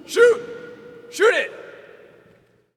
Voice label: Hockey Male "Shoot"; Hockey Vocal Sounds